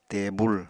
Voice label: "tebul", Apatani; Household Items

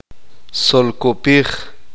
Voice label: uspanteko; voltear dar la vuelta

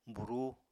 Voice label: "burúu", Apatani

